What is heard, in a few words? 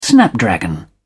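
"snapdragon", British English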